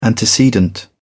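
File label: "antecedent", British English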